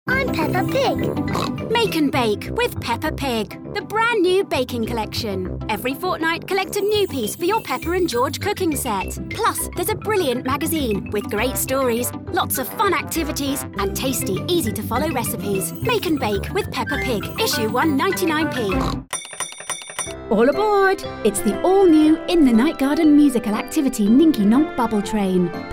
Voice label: Kids Commercial